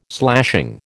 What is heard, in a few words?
"slashing", American